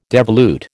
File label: "devolute", American